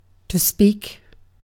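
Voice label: "speak", British English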